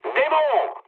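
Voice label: enemy detected, mood high, French; War Thunder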